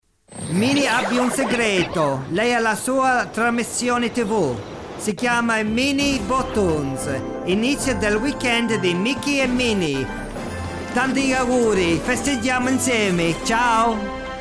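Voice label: Italian, Commercial; GoLocalise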